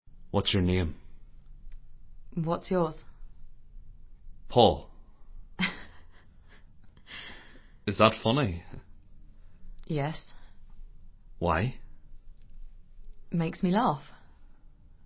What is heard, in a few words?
multiple accents drama compilation